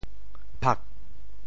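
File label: "phak4", Teochew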